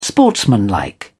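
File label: "sportsmanlike", British English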